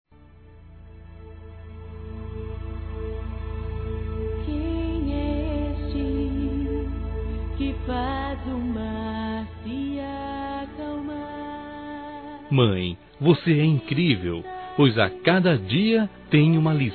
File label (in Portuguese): Geral Masculino; Dia das Mães; Telemensagens 2017